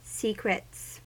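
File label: "secrets", American English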